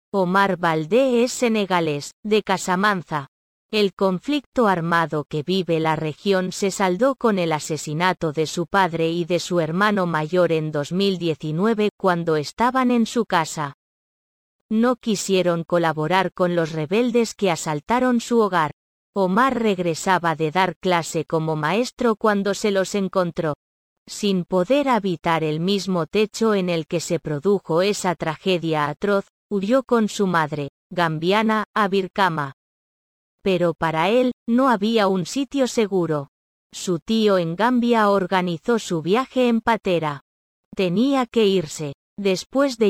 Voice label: audio espanol